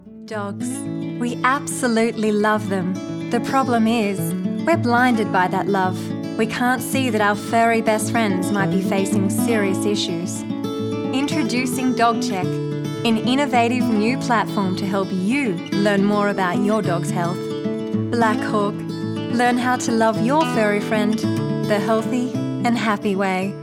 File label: Dog Commercial; BlackHawk